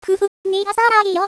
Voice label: cheer, voice commands; svencoop; Miku

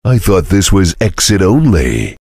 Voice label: chitchat; svencoop; voice commands; Duke

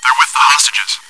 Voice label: chitchat, bot, voice commands; svencoop

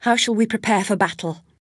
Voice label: chitchat; svencoop; Alice

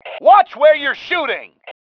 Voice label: watch it, male

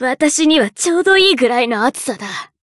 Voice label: taunt, voice commands Anime Loli; svencoop

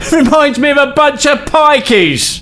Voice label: Taunt, male; svencoop

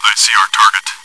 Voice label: chitchat; svencoop

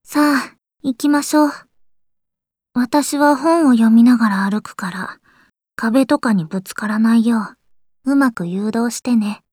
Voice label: chitchat, voice commands; svencoop; Blanc

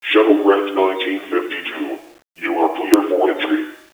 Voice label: clear for entry dock, intercom; ProjectMobius

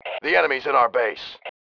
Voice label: enemy in base, MALE

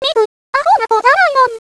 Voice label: run away, voice commands; svencoop; Miku

